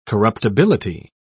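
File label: "corruptibility", British English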